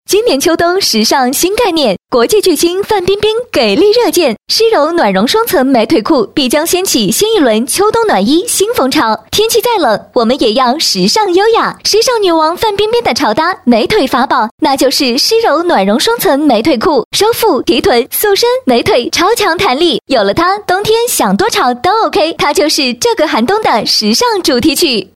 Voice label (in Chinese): 广告 电购 裤子, 女国